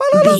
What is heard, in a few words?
voice fun character cute cartoon